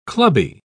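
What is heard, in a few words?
"clubby", English, British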